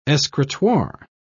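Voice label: "escritoire", British English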